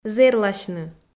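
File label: "зэрласьны", Komi, female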